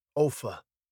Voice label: "author", British

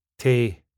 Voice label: "Tae", スコットランド英語; to（〜へ）; “O”の音は”ae（エの口でアと言う感じ）”へと変化します。; 特徴⑤：変化する“O”の音; no → tae

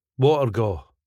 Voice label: "watergaw", スコットランド英語; 未完成の虹

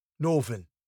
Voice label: northern

